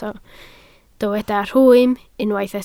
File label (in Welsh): "rhwym" Welsh